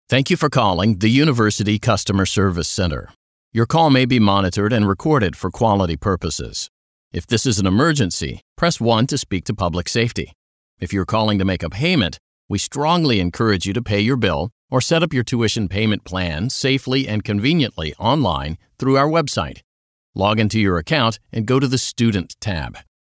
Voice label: us english ivr